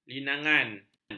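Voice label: "linangan", Malay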